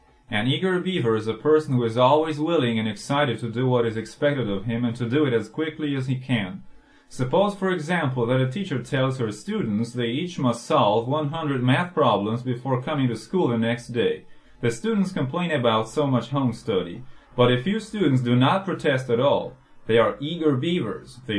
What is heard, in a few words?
eager